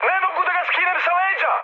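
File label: Serbian aircraft damaged mood high, speech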